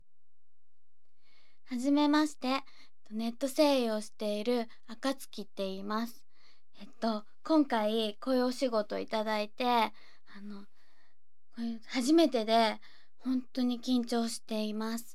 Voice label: 自己紹介, ASMR

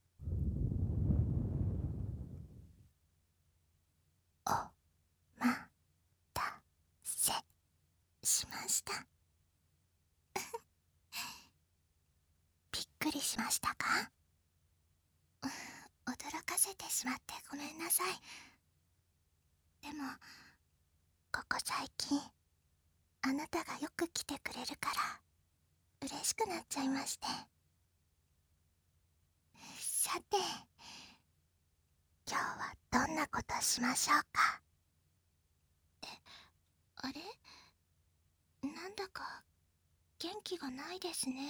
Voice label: 癒しのご奉仕全裸マッサージ, ASMR

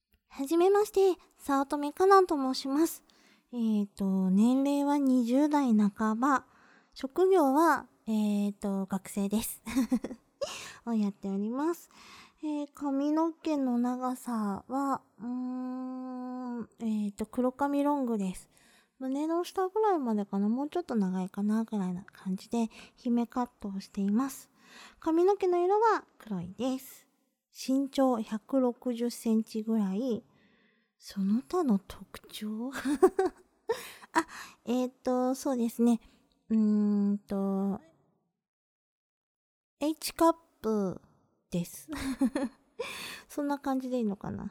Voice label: フリートーク「自己紹介」; オナニー実演音声

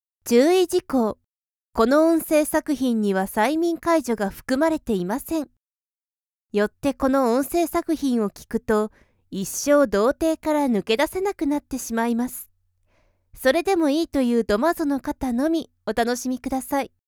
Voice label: 注意事項, ASMR

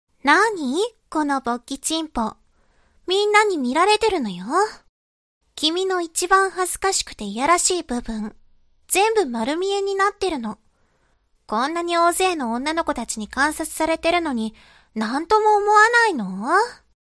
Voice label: 懲罰中音声, ASMR

